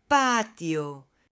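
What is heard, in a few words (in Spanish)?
"patio", Spanish, female; LUGARES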